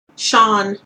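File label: "shone", Australian, Canadian, British English; pronunciations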